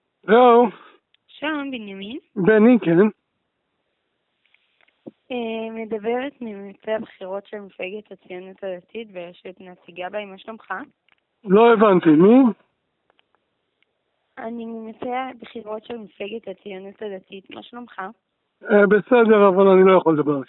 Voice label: Call recording; A.N. BATITO GABAY LTD